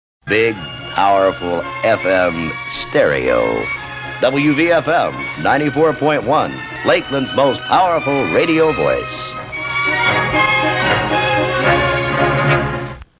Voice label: Station ID; WVFM